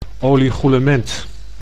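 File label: "oligoelement", Dutch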